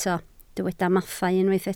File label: "mathau", Welsh